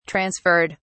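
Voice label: "transferred", British English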